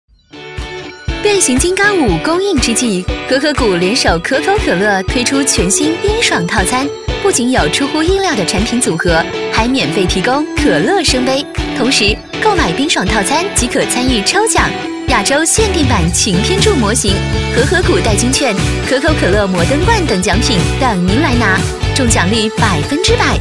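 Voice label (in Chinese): 女S112 国语 女声 广告 可乐广告 时尚活力 积极向上 神秘性感 调性走心 亲切甜美; 和合谷可乐广告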